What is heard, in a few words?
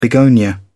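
"begonia", en, uk British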